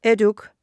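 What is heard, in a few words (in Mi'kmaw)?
"etuk", Mi'kmaq; wowkwis aqq kaqaquj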